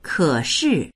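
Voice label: "ke3shi4", Chinese; kěshì; 可是; しかし、けれど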